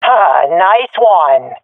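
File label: sees enemy killed, swat female; Counter Strike Model Pack; CS:GO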